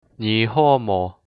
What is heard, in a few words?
how are you, hakka